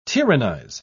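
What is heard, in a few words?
"tyrannise", British English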